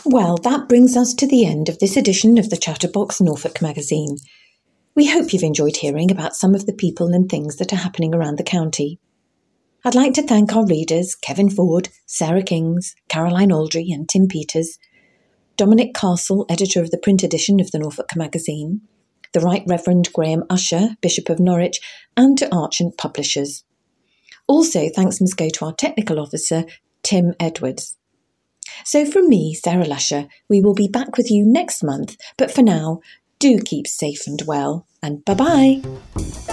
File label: Closing Announcement